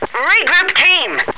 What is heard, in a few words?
regroup, woman